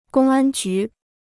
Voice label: "公安局", Chinese